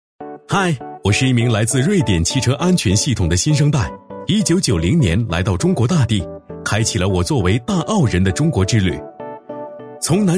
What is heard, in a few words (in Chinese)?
男 MG动画 轻松 自然 阳光; 大气主流; 大奥